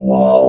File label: "wall", robot